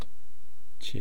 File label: "chi", east cree syllabics